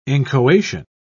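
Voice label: "inchoation", British English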